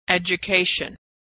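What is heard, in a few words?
"education", American English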